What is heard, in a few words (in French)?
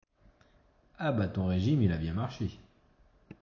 régime ironie